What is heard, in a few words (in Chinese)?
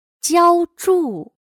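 "浇铸", Chinese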